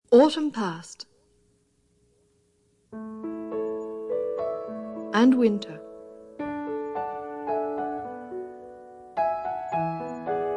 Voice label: Returning (Narration)